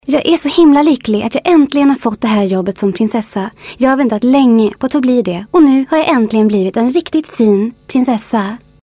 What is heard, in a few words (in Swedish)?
rostprov prinsessa, karaktärsröster